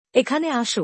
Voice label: Come here bangla; Simple Commands